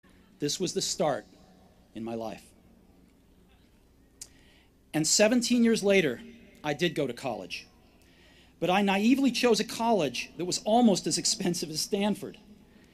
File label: Commencement Address; Steve Jobs; 2005; Stanford